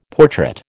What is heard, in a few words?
"portrait", American